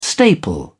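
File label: "staple", British English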